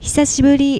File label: "hisashiburi", Japanese